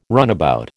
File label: "runabout", American